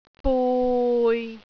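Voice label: "bui6", Cantonese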